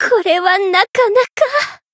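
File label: healed, Japanese; pom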